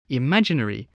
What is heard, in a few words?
"imaginary", voice spelling